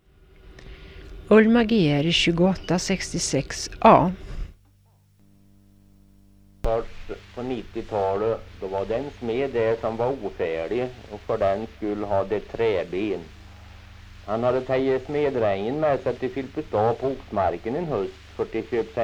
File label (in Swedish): Kroppa, dialekter; Svenska sagor; Folkminnen